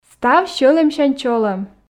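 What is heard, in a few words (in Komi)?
Komi, став сьӧлӧмсянь чолӧм, female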